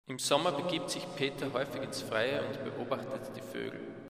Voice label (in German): satz reverb